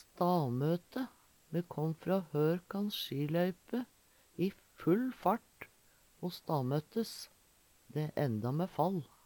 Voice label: stamote, Numedalsmål

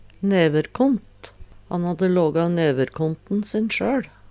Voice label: neverkont, Numedalsmål; Han hadde lågå neverkont`n sin sjøL.; Substantiv hankjønn; Personleg utstyr, klede, sko

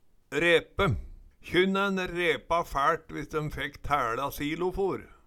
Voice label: "repe", Numedalsmål

